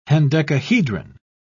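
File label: "hendecahedron", British English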